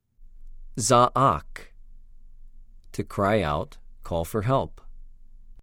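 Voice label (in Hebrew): "זָעַק", Hebrew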